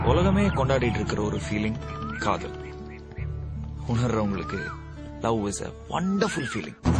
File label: love dialogue tamil; ringtones; sms